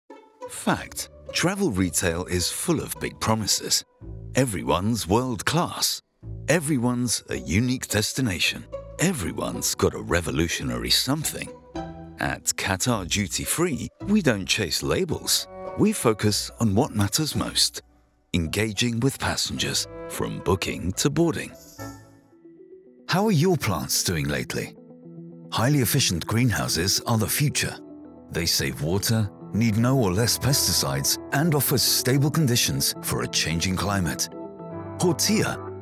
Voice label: corporate, voice acting